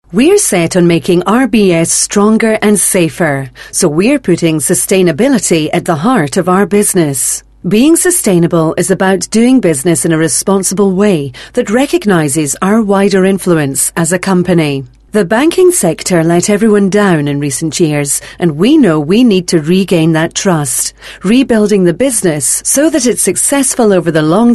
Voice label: Corporate; RBS

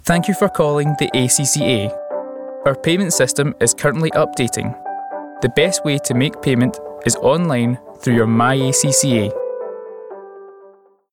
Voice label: IVR

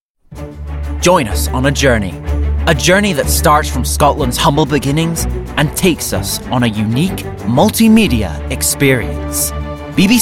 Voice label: commercials

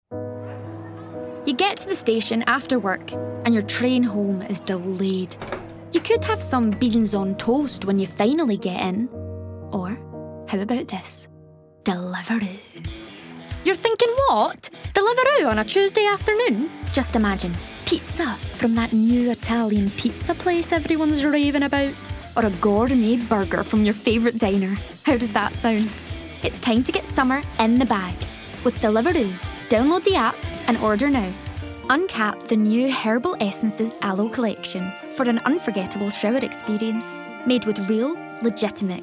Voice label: commercial, voices

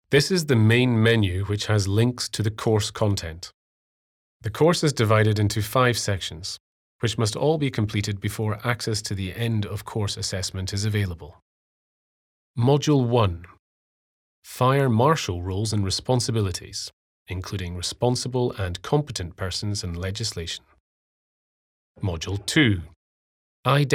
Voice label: E-Learning

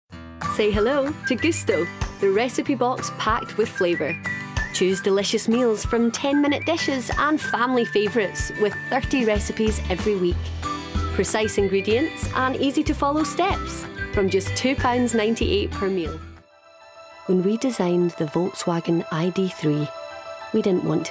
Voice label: Commercial Reel

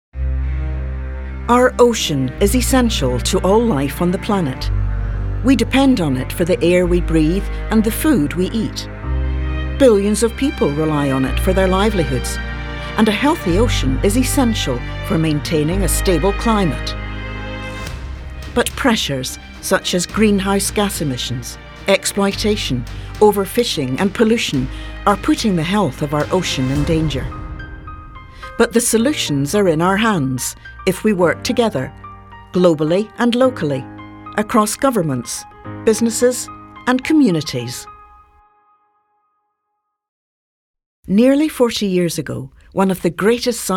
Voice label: corporate, voices

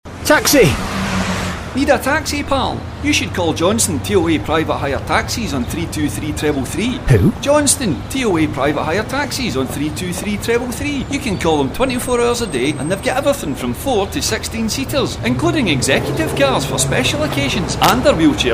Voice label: Commercial

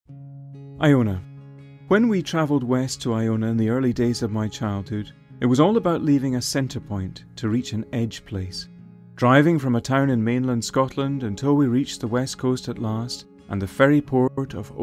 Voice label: narration

